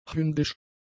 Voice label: "hündisch", German; adjectives

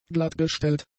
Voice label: "glattgestellt", German; adjectives

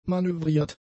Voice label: "manövriert", German; adjectives

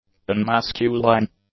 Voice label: "unmasculine", English; adjectives

